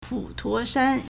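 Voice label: "pu3tuo2shan1", Mandarin Chinese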